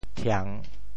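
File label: "thiang3", Teochew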